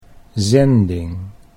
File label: "zending", Dutch